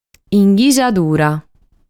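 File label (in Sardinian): "Inghisadura", Sardinian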